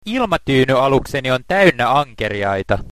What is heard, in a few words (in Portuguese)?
hovercraft finlandes